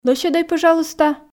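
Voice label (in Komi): лӧсьӧдӧй пӧжалуйста, Komi, female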